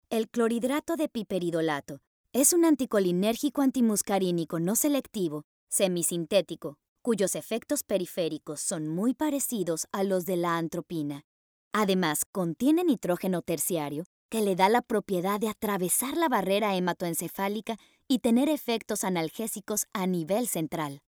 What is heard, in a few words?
Spanish medical